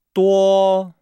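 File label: "duo", Chinese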